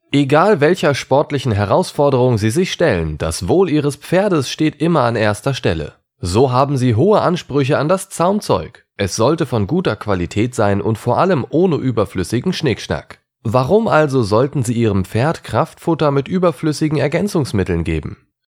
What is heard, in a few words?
GERMAN